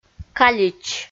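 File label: "калич", Komi, female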